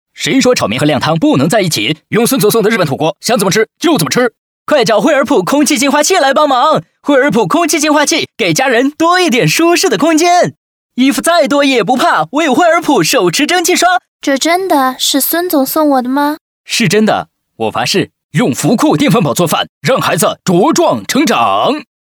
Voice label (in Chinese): 开场广告 快速活泼, 国语男声配音